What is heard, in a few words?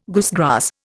"goosegrass", Indian